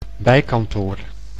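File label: "bijkantoor", Dutch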